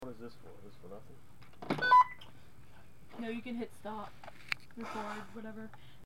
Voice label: you know you want it and sigh; Gardner’s Used Books & Music; Tulsa, Oklahoma; recorder base